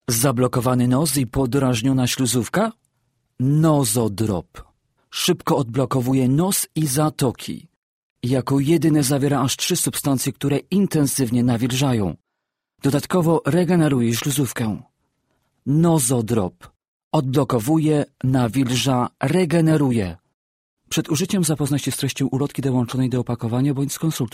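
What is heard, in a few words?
PL Commercial, Polish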